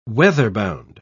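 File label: "weather-bound", British English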